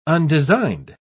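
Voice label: "undesigned", British English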